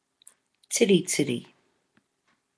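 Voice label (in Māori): "tiritiri", Māori word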